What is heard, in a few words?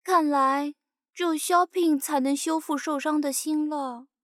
sad